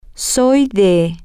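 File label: I am from, Spanish, female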